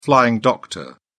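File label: "flying doctor", British English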